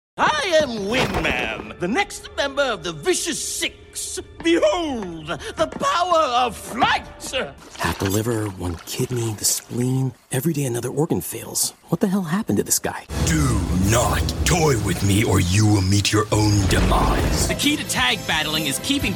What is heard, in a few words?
animation men